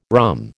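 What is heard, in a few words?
"rhumb", American English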